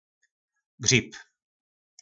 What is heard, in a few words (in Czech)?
"Gřib", nářečí Po našimu; Houba